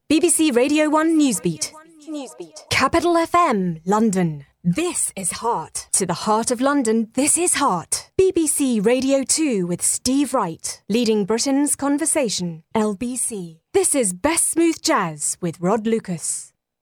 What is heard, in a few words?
radio jingles